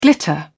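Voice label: "glitter", British English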